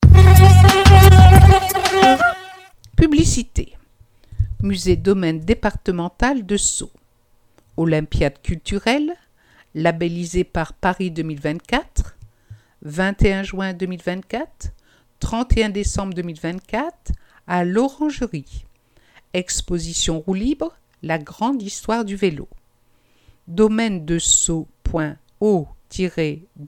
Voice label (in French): publicite; L'Abeille 53